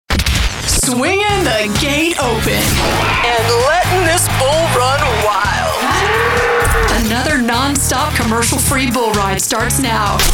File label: Radio Imaging Country